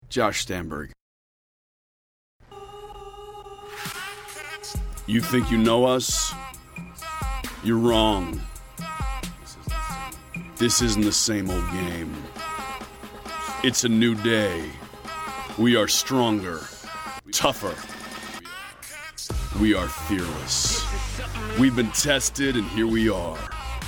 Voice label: commercial; Innovative LA